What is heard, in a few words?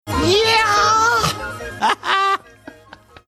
saying yeah high pitched